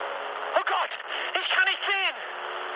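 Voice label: German searchlight mood med, speech; War Thunder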